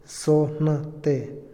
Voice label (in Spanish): demandante, Otomí, hombre; INALI